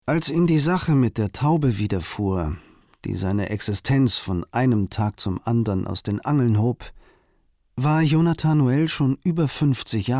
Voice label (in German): kommentar